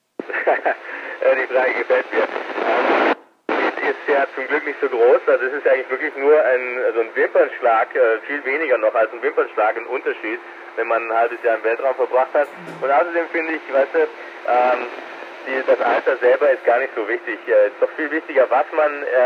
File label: ISS audio